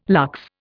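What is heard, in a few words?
"lux", Indian English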